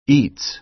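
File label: "eats" American English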